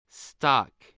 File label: "stock", voice